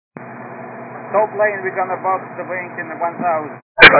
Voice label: 122 725MHz, scanner radio